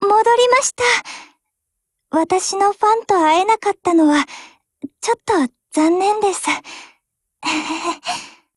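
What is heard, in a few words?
OPERATION OVER Japanese, Voice; GF Alarm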